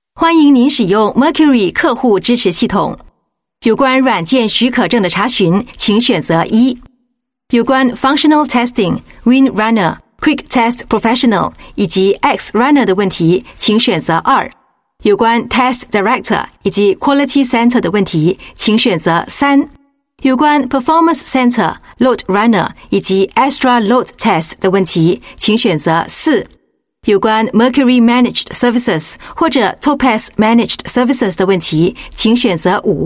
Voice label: Mandarin IVR Clean, China Female